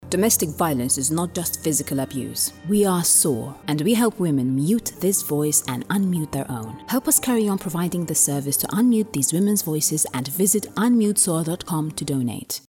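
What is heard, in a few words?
Maltese English, female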